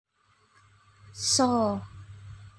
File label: Suara Sa, aksara, Basa Jawa